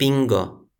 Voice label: "Pingo", Toki Pona